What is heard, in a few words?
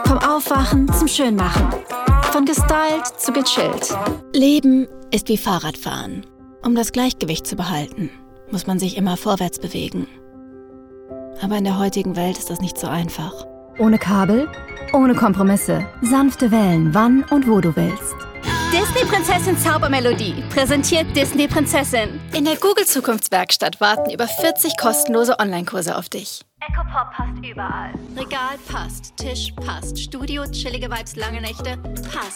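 Montage German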